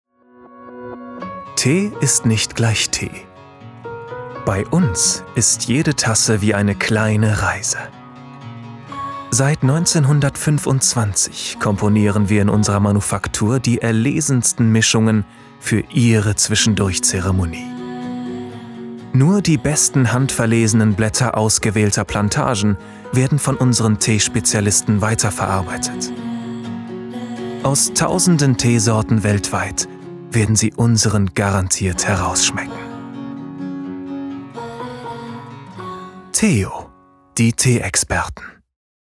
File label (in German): werbung tee ruhig entspannt